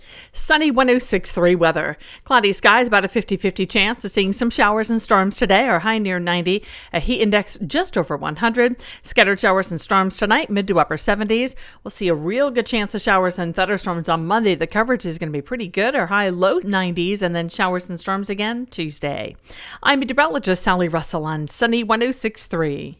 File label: Sports Radio